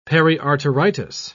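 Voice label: "periarteritis", British English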